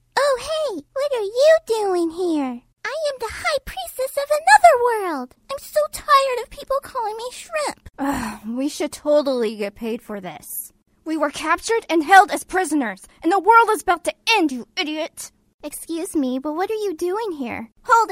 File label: character voiceover; Character Voiceover Demo 2014